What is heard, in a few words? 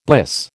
"bliss", American